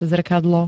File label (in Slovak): "zrkadlo", slovenské